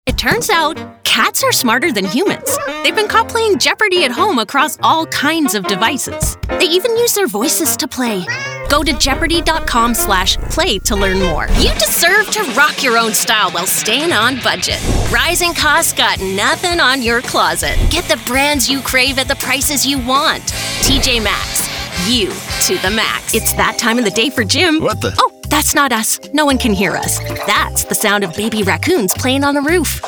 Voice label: Commercial